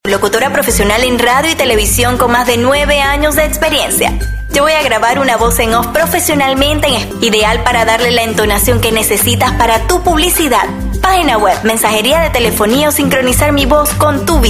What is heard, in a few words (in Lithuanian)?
(MOT) Ispanu balsas